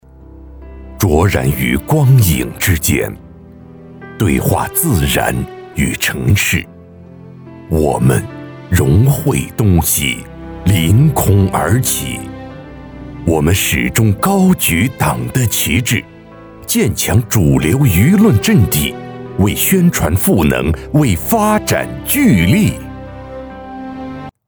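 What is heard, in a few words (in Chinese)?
男【党政广告片 深邃厚重】; 融媒体中心tvc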